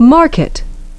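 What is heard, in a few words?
"market", English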